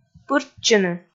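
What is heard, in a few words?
"пыртчыны", Komi, female; kpv audio dict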